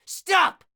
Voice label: Caster Elite Alert Line, dialogue; NPC; Heist Military Spotted